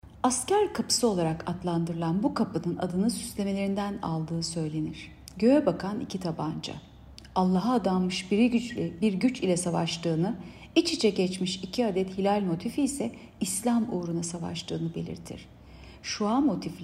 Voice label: turkce